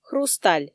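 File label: "хрусталь" Komi, female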